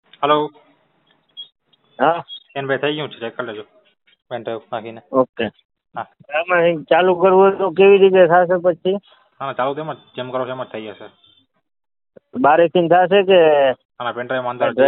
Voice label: Call recording